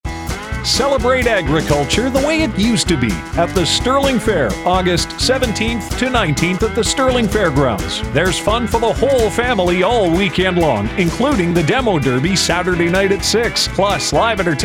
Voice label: Radio Ad; Stirling Fair